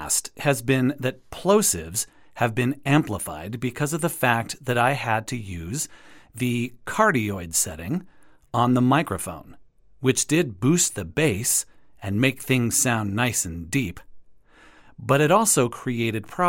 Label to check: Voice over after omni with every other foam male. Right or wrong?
Right